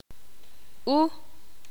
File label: ixil